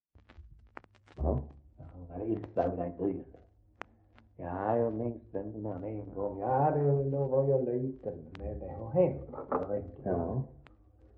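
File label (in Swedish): Svensköp Skåne, Svenska sagor dialekter; Folkminnen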